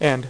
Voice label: "AND", Dealer's Speech; PowerPOKER Demo